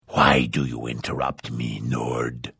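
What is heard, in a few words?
Hello, Vo; Morrowind GOTY GOG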